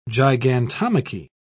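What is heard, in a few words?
"gigantomachy", British English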